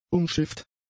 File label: "umschifft", German; adjectives